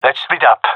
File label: gendermerie male; request spread out; Counter Strike Model Pack; CS:GO